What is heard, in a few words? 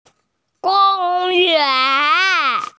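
"gong yuan", Mandarin Chinese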